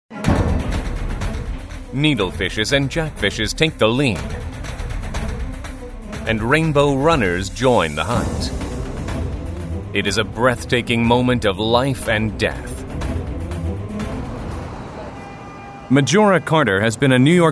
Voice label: American English, M